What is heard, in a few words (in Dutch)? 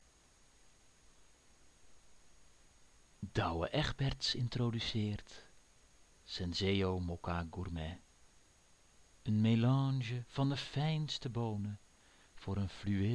Zwoele promo